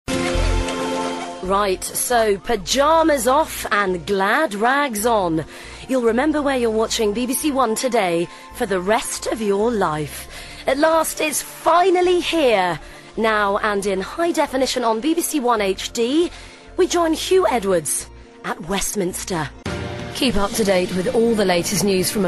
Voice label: continuity